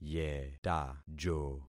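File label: "yetajo", concatenated syllables; Affixing experiment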